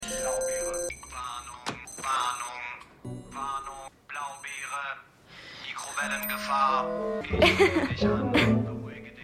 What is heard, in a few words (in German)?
Warngeraetstimme; Santa Clause